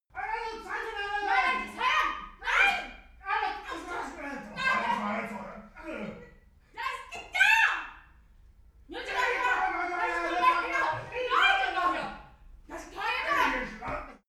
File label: pair argument distant